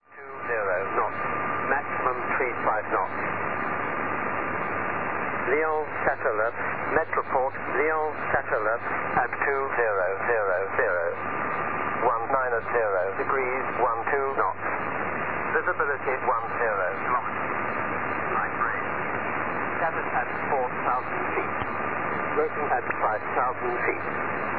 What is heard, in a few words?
Volmet 5505 kHz; Shannon